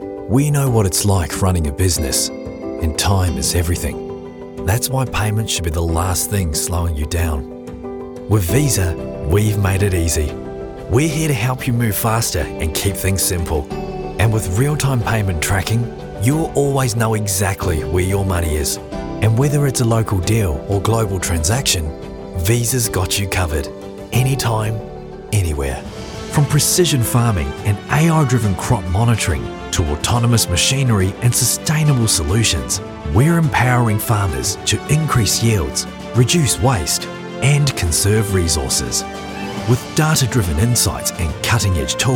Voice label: english australian corporate videos; australian voice actors